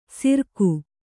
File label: "ಸಿರ್ಕು", Kannada